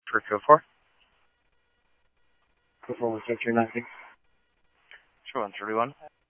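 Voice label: Mesa police department, scanner audio trunk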